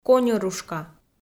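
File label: "коньӧрушка", Komi, female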